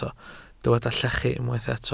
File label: "llechu", Welsh